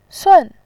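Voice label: "sun", Mandarin Chinese, fourth tone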